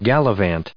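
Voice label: "gallivant", American English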